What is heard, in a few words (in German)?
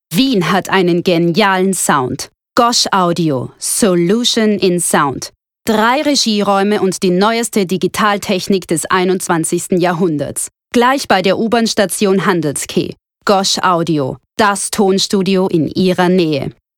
plakativ